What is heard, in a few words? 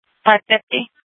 Sheriff, Maricopa County P25; Shrf Lakes